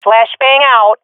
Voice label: throwing flashbang, swat fem; vo; agents; Source Model Packs; Counter Strike Model Pack; CS2